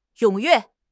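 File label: "踊跃", Mandarin Chinese; HSK6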